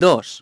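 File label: "two", English; iOS 4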